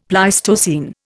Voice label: "Pleistocene", Indian; Dictionary

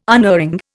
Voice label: "unerring", Indian

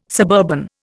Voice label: "suburban", Indian

